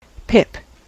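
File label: "pip", US English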